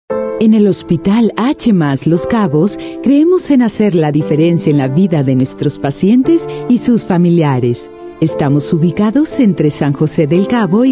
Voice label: spanish voice over female commercial

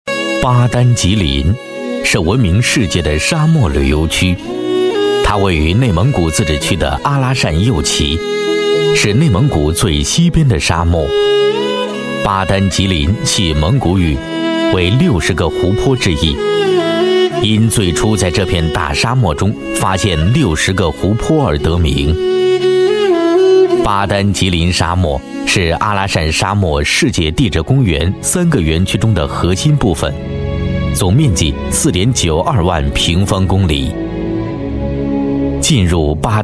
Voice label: chinese voice over male narrator

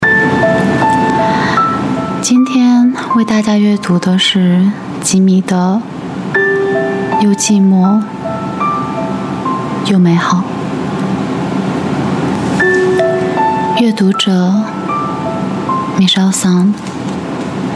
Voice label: Chinese voice over, female audiobook

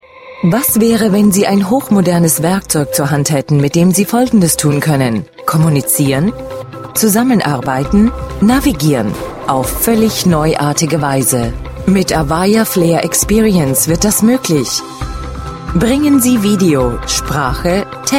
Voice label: germany voice over female narrator

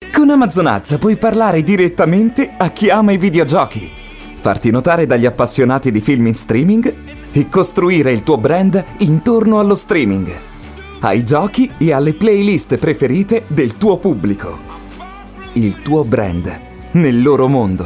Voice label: italian voice over male commercial

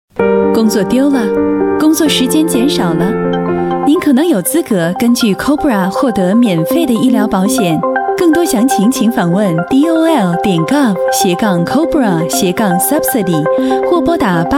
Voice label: chinese voice over female commercial

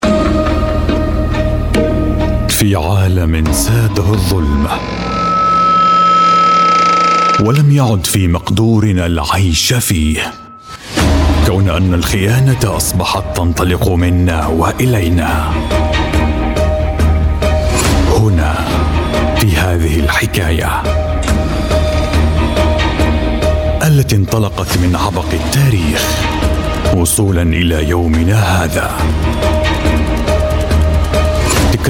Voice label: arabic voice over male commercial

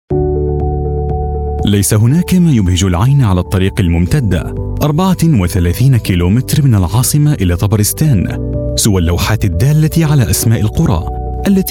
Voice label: arabic voice over male narrator

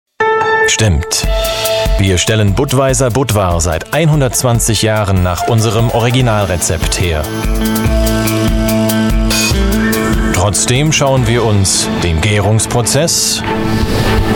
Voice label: german voice over male commercial

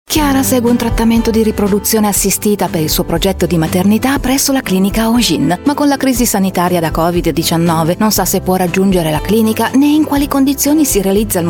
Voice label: italian voice over female narrator

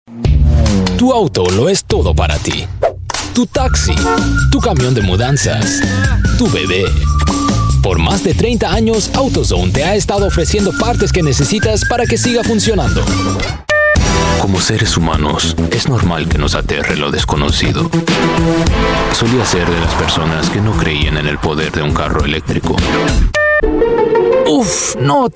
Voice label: spanish voice over male commercial

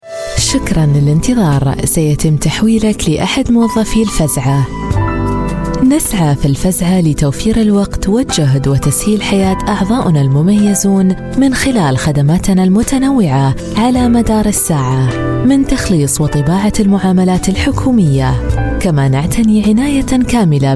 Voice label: arabic voice over female ivr

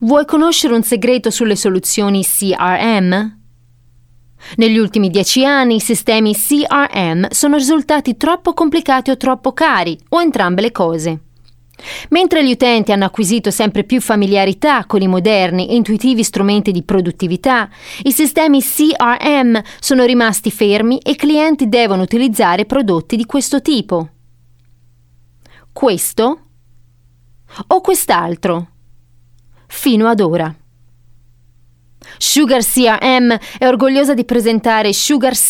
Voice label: italian voice over female narrator

